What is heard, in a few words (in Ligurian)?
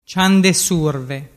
"ciandesurve", dialettu